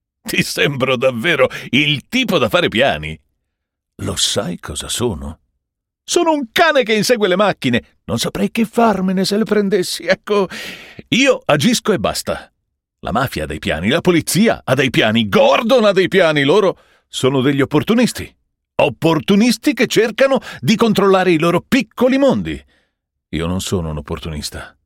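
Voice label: ITA dub bad guy, Italian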